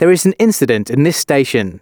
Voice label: announcements, male